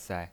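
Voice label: "sai", Mandarin Chinese pinyin voice, neutral tone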